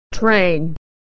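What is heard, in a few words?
"train", American English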